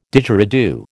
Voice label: "Didgeridoo", American